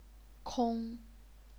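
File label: "kong", Chinese, first tone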